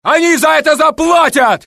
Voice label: Russian; mohaa; dialogue